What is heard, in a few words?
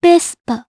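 Vox Japanese; DarkFrey; Vespa; kingsraid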